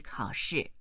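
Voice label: "kao3 shi4", Chinese, female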